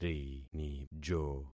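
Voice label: "rinijo", concatenated syllables; Affixing experiment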